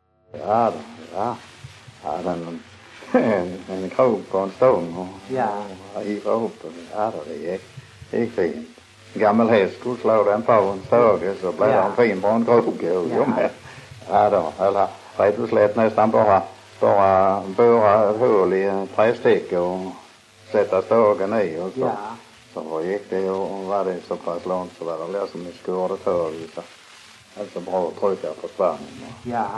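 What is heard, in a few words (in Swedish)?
Munka-Ljungby Skåne, dialekter svenska sagor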